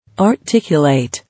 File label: "Articulate", English